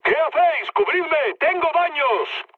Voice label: aircraft damaged, mood med, speech; War Thunder